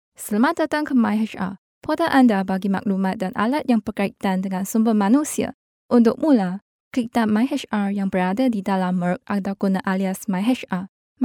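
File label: Malay, Malaysia, female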